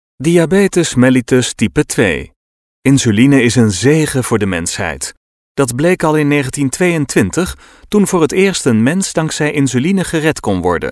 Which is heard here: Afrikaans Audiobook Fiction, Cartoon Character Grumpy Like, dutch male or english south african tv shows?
dutch male